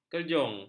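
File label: "kerjung", Malay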